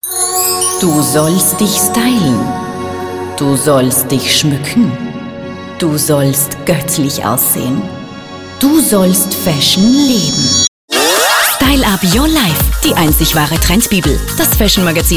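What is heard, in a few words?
german austrian female commercial; voice demos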